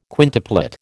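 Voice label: "quintuplet", American English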